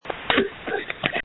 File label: voicemail